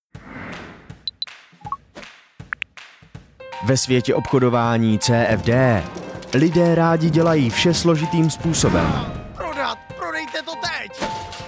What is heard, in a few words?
czech corporate videos